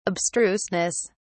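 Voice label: "abstruseness", English, British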